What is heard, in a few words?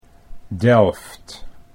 "Delft", Dutch